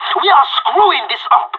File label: scared emote, pirate; Counter Strike Model Pack; CS:GO